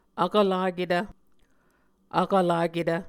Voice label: "vkvlaketv", Muscogee